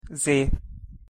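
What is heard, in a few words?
"z", Hungarian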